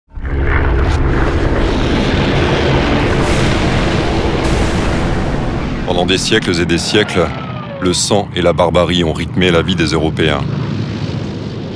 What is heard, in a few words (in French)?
voix off